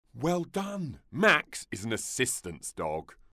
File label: working dog quiz assistance dog yes, en; werkhonden